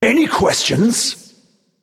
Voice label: Male Naga Attack